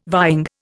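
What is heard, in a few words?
"vying", Indian English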